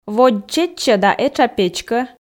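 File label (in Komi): водз чеччӧ да этша печкӧ, Komi, female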